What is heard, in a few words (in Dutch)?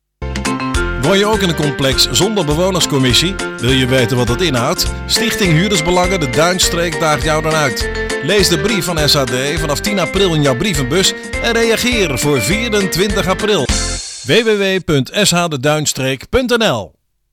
Radiospot